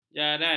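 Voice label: "jaran", Malay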